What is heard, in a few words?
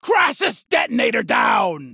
Kill Cry